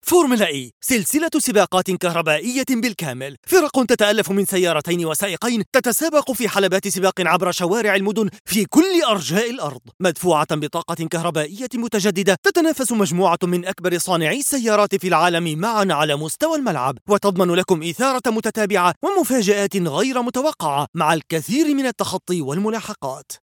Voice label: Arabic voice over excited

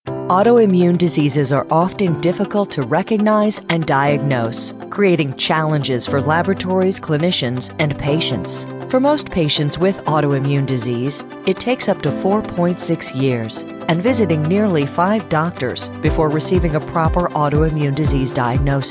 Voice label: English Voice Over Sample

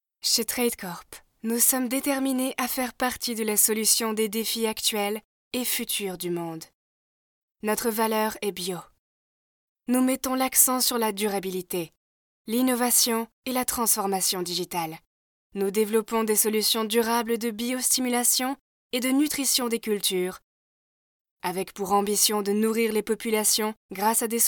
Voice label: French Voice Over Sample

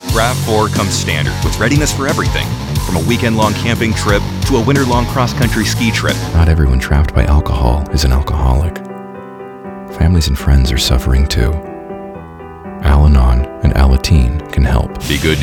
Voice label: english voiceover energetic short ads

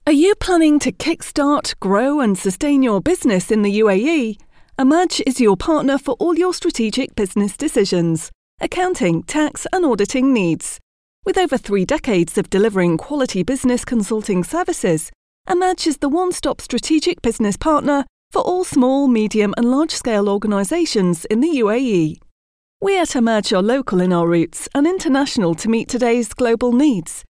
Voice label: English Voice Over

